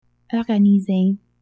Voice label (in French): "organiser", French